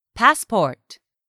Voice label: "PASSPORT", English; 3000 words

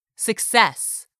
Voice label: "success", English; 3000 words

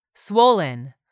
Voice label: "SWOLLEN", english; 3000 words

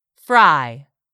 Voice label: "fry", English; 3000 words

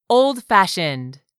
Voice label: "old-fashioned", English; 3000 words

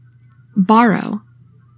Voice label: "BORROW", english; 3000 words

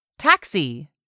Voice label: "taxi", English; 3000 words

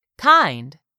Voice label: "KIND", english; 3000 words

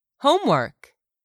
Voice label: "HOMEWORK", english; 3000 words

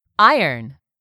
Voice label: "IRON", english; 3000 words

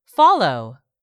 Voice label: "FOLLOW", english; 3000 words

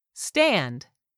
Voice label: "STAND", english; 3000 words

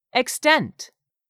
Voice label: "EXTENT", English; 3000 words

